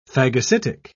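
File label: "phagocytic", English, British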